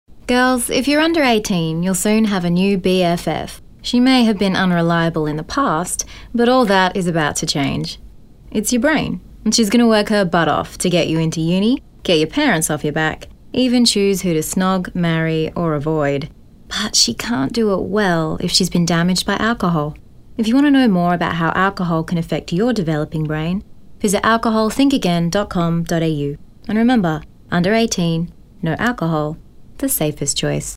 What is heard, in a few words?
female radio ad; young people